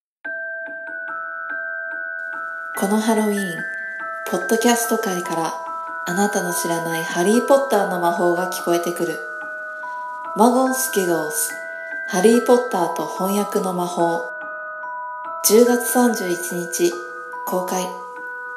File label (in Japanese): 日本語 予告; Muggles Giggles